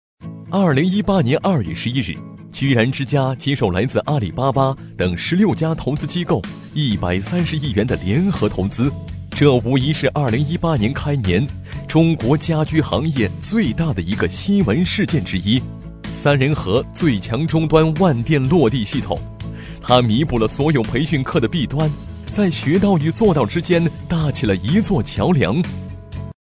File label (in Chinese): 男; 飞碟说; 三人禾